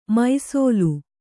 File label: "ಮೈಸೋಲು", Kannada